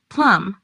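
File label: "Plumb", pronunciation; fruits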